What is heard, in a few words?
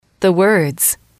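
"the words", English, female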